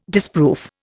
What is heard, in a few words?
"disproof", Indian